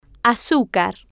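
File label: "azucar", Spanish, female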